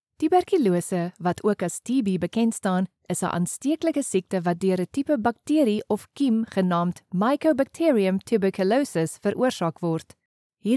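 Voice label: Afrikaans, female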